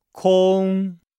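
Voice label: "kong", Chinese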